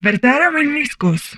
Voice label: humanoid female; Stellaris; humanoids